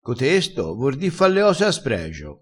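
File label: "a spregio", Italian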